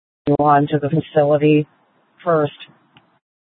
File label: Fire, scanner; Regional Wireless Cooperative (RWC) - Thompson Peak High; PHX Fire